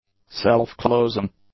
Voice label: "self-closing", English; adjectives

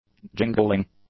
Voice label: "jingling", English; nouns

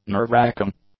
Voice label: "nerve-racking", english; adjectives

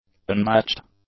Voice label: "unmatched", English; adjectives

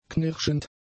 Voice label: "knirschend", German; adjectives

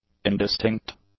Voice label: "indistinct", English; adjectives

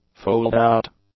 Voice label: "fold-out", english; adjectives

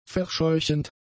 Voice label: "verscheuchend", German; adjectives

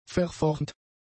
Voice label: "verformt", German; adjectives

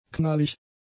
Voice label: "knallig", German; adjectives

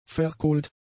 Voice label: "verkohlt", German; adjectives

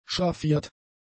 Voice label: "schraffiert", German; adjectives

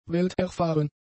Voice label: "welterfahren", German; adjectives

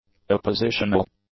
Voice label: "appositional", English; adjectives

